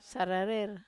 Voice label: "sararer", Wamesa